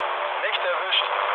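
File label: German bomb failure mood low, speech; War Thunder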